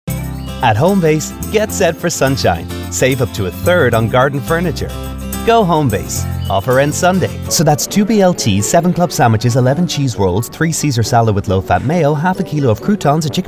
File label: British Mid-Atlantic Commercial